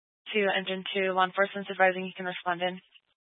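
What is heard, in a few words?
Fire Dispatch, Scanner audio recording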